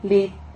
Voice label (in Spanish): "lid", Silabas